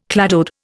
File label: "cladode", Indian